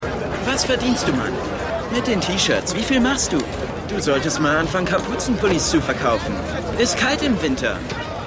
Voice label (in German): Jugendlicher; The Wire